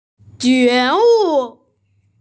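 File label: "jue wu", Mandarin Chinese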